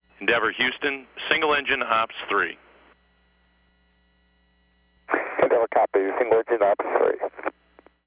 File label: Space Shuttle Launch Comm Single Engine; Nasa Audio Highlight Reels; AG Single Engine OPS3